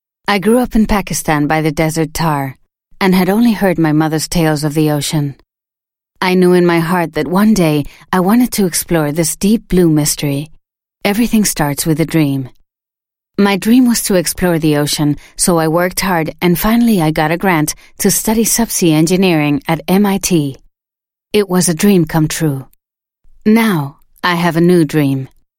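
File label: Spanish accent English female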